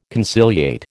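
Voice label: "conciliate", American English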